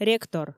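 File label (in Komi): "ректор", Komi, female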